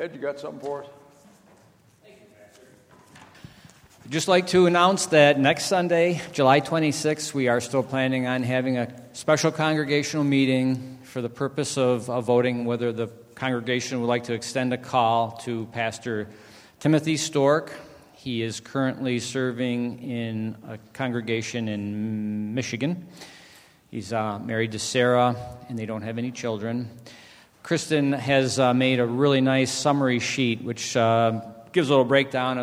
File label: Welcome and Announcements